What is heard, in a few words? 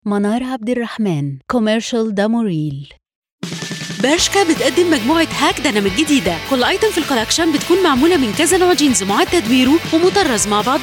Egyptian Arabic